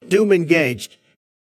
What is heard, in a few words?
doom engaged, voices en; jankboard